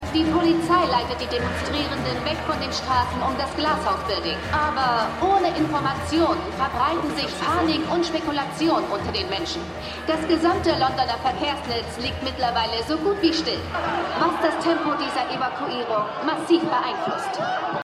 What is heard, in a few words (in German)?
Reporterin; Slow Horses